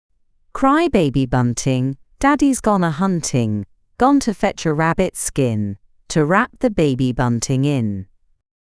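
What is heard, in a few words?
female British; Cry Baby Bunting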